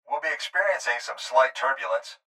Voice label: Pilot Voice Turbulence